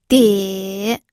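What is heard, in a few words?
"die3", Mandarin Chinese; pinyin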